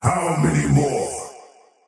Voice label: last hit pain, faceless void; dota